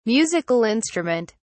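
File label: "musical instrument", British English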